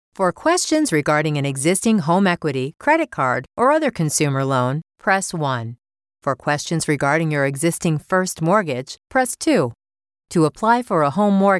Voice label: IVR